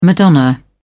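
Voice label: "madonna", British English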